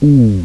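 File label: rounded close back u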